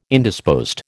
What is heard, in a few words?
"Indisposed", American